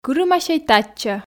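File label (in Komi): кырымасьӧй татчӧ, Komi, female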